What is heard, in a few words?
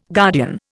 "guardian", Indian